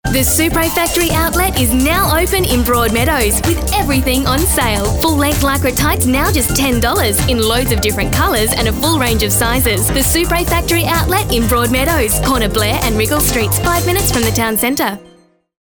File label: RETAIL bubbly